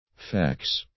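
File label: "fax", English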